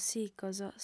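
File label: "sicos", Sardinian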